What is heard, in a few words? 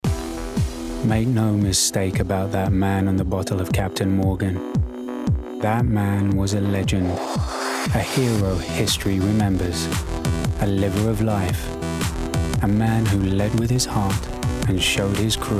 English; Captain Morgan